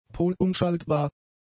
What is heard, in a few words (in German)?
"polumschaltbar", German; adjectives